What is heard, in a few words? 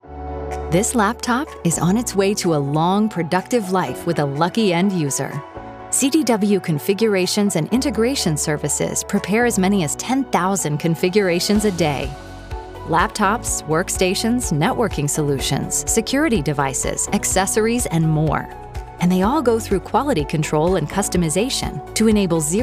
english american explainer videos